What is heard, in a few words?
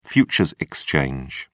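"futures exchange", British English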